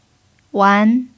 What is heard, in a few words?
"wan2", Chinese pinyin